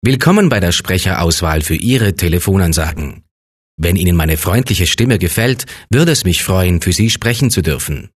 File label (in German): INTRO deu freundlich, professionelle ansage telefonansagen; Telefonanlage; Deutsch; professionelle ansage telefonansagen musik